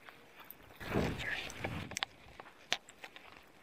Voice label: wind then voice saying drink or dream; Pere Cheney; EVP; Pere Cheney July 20 2017